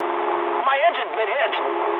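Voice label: English damaged engine mood medium; speech; War Thunder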